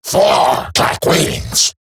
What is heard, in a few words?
Attack Eximus Grineer Male; Battle Barks